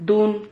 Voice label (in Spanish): "dun", Silabas